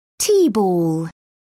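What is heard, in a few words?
"tea ball", British English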